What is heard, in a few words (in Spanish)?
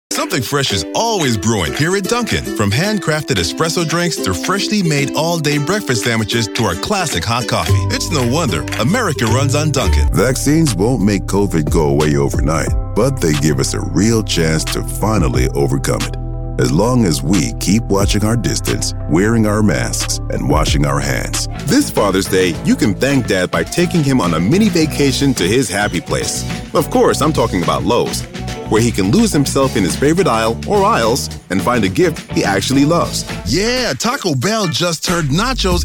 Ingles Estados Unidos Masculina Adulta